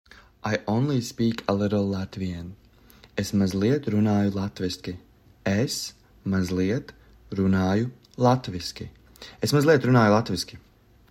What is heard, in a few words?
I only speak a little Latvian, Latvian; the talking latvian phrasebook